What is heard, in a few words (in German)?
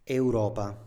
"Europa", Italienisch; Standard-Italienisch